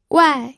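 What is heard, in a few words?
"wai4", Mandarin Chinese pinyin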